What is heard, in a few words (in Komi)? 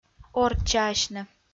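"орччаасьны", Komi, female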